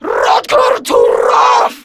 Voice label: Cover Me, Grineer Female; Warframe; Battle Barks